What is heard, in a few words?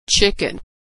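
"chicken", American English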